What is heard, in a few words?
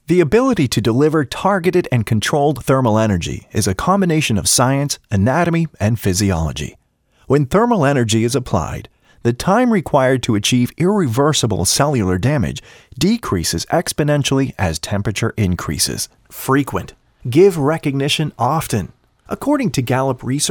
Voiceovers eLearning